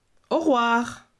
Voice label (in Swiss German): "oruaar", alsace